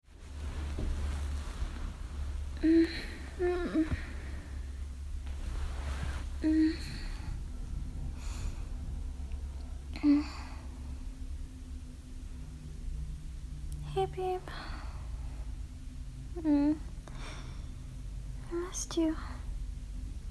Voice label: F4M, Rambling, Whisper, Improv, Sleepy noises, Cuddles in bed; I had a dream about us…; Lil smooches; Giggles